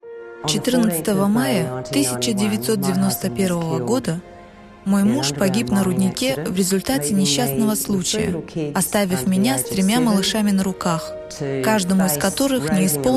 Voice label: russian documentaries

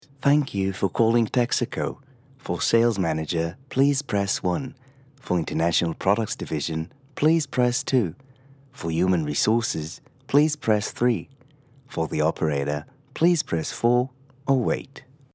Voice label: english australian ivr

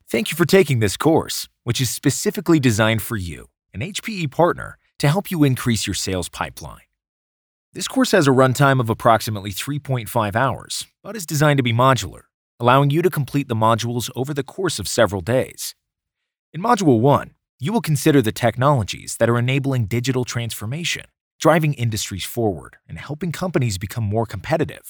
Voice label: english american e-learning

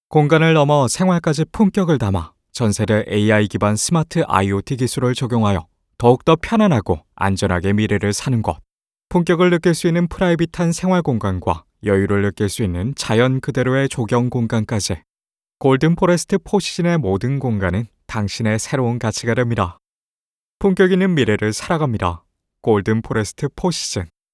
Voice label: korean commercial

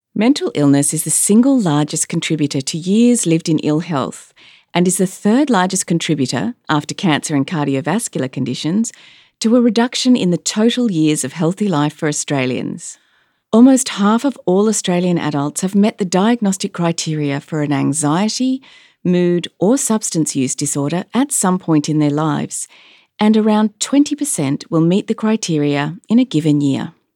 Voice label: english australian podcasts

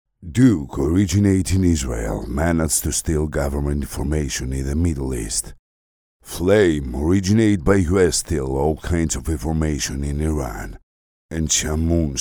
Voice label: greek others

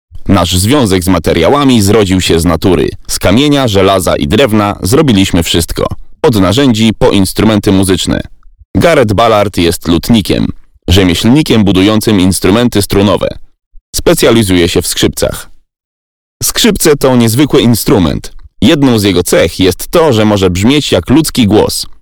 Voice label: polish documentaries

